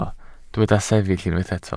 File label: "sefyll", welsh